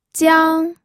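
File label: "jiang1", Mandarin Chinese first tone; pinyin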